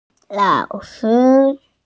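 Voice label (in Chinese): "lao3shi1", Mandarin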